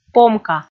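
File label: "помка", Komi, female; kpv audio dict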